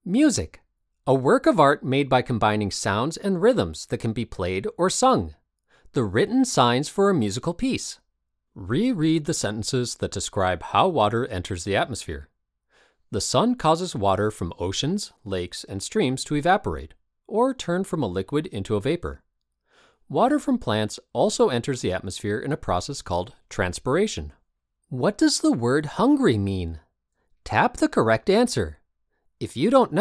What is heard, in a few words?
eLearning